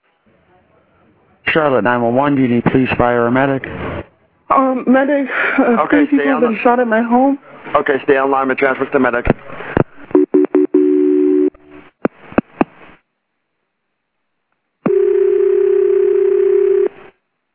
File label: 911 call; Police Release 911 Call From Double Homicide At Home In Providence Country Club; WCCB Charlotte